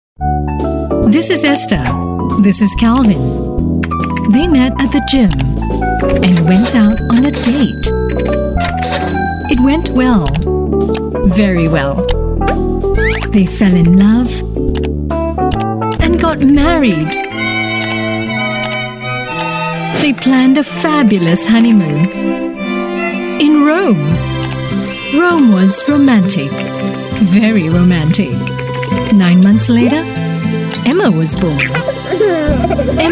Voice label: Asian English Product Launch Financial Planning Tool; BTO Up